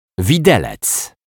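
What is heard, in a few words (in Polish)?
"widelec", Polish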